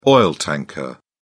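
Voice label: "oil tanker", British English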